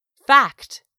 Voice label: "FACT", english; 3000 words